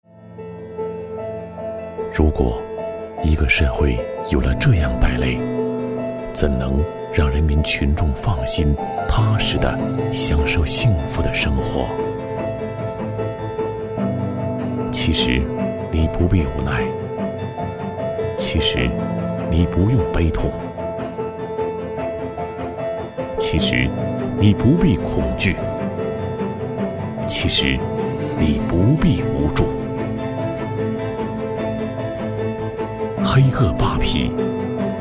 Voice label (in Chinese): 男 政府专题 黑恶必除